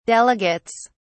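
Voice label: "delegates", British English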